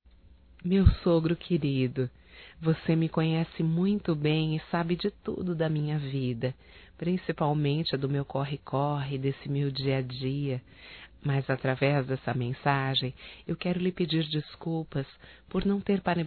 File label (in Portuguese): Aniversário Feminino, Telemensagens; Aniversário Atrasado Para Sogro Feminino